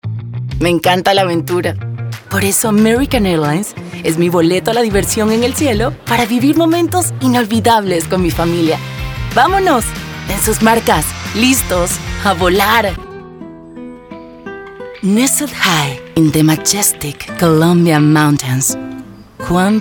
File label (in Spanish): Comercial